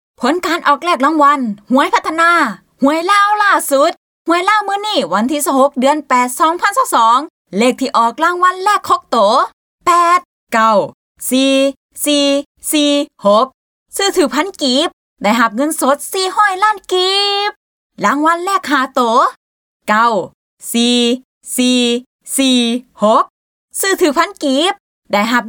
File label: Laos Female Informative